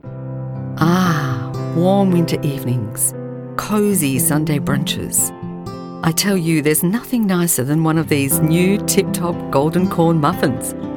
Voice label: smooth